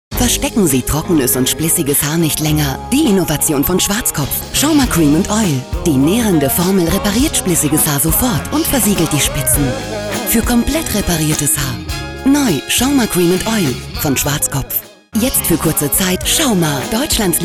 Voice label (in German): Werbung; Schauma